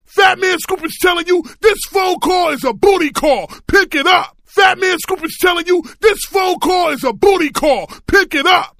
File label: This Is A Booty Call, ringtones funny